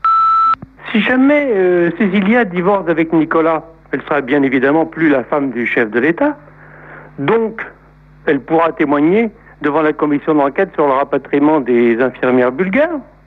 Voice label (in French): Temoignage repondeur